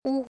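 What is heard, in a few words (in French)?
"ou", français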